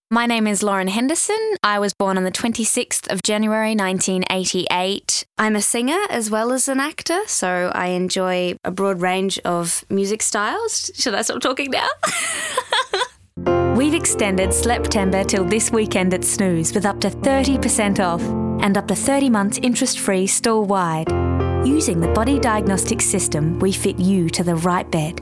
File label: Voice-Over